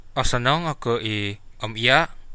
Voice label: fine, Central Dusun

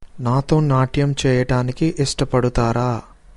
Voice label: "dance", Telugu

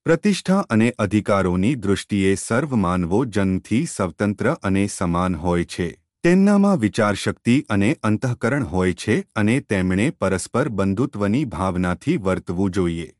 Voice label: Gujarati

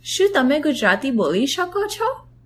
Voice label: do you speak, gujarati

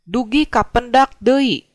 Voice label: goodbye, sundanese

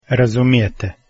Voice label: do you understand, croatian; Razumijete?

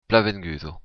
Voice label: welcome, occitan

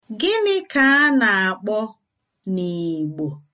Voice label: how to say, igbo

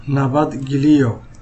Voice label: goodbye, somali

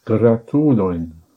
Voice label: congratulations, esperanto

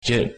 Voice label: hakka numbers

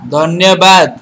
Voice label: thanks odia

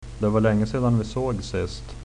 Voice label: long time no see, swedish

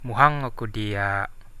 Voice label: i love you, Central Dusun

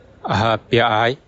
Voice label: fine, bonggi

